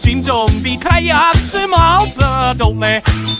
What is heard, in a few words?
scout battlecry; cnscout